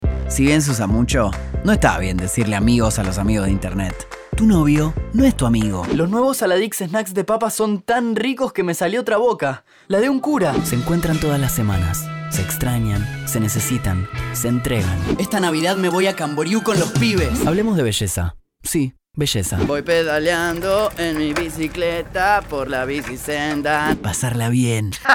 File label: acting